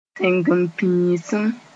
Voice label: "tẽngempiisim", Moore

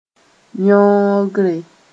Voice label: "yõogre", moore

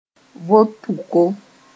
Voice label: "vot tʋko", moore

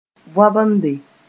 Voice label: "võabende", moore

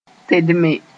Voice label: "tedme", moore

